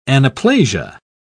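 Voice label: "anaplasia", British English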